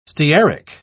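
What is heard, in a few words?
"stearic", British English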